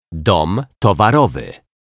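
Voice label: "dom towarowy", Polish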